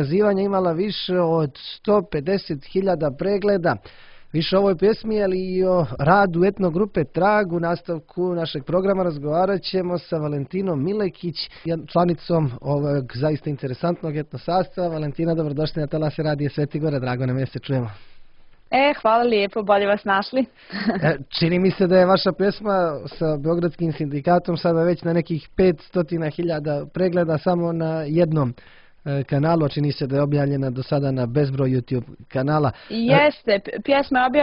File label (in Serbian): razgovor grupa; Trag